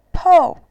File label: "pou4", voice